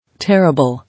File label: "Terrible", English